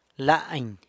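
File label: "lany", stomach, San Lucas Quiaviní Zapotec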